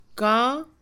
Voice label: "león", Mixe, Mujer; Animales; INALI

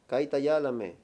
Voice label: "inocente", Mayo

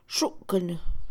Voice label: olfatear, Mixe, Mujer; Percepción; INALI

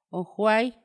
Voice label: humo, Paipai; Vocabulary; INALI

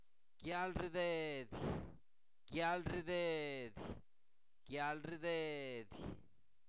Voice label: diarrea, Zapoteco, santo domingo albarradas; INALI; enfermedades

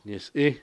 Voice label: "relámpago", Cucapá

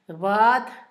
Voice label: pato, Zapoteco, Asunción Ocotlan; Animales; INALI; Haspelmath

